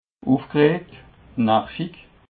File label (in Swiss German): "ufgregt", alsace